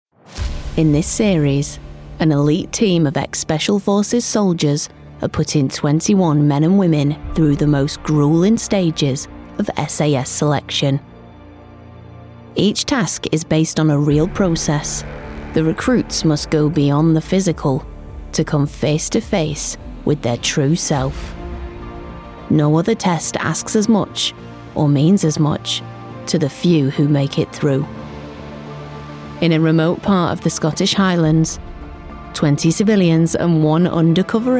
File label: Documentary